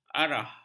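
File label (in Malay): "arah", Malay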